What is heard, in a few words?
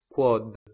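"kwod", Proto-Indo-European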